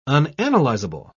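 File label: "unanalyzable", British English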